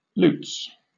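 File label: "lutes", British English; pronunciations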